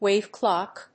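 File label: "wave clock", English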